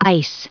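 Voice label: "ice", US English, recite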